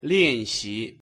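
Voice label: pronunciation "练习", Chinese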